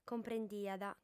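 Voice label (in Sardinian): "comprendiat", female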